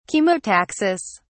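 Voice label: "chemotaxis", British English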